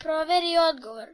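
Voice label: check answer, Chinese; gcompris-data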